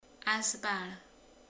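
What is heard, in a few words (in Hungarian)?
"érint", Hungarian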